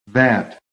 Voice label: "VAT", american